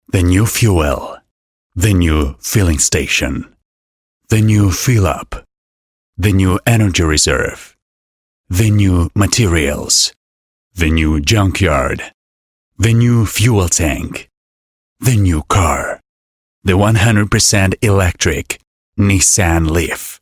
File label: ENG